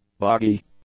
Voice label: "boggy", English; adjectives

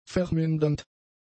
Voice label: "vermindernd", German; adjectives

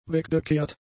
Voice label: "weggekehrt", German; adjectives

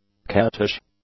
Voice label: "cattish", English; adjectives

